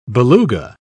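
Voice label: "beluga", British English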